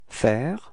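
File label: "faire", French; frans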